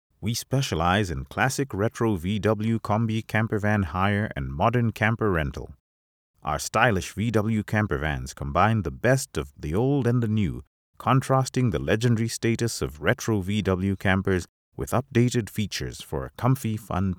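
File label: African English Male sample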